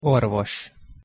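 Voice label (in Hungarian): "orvos" Hungarian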